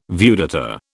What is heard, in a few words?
"viewdata", British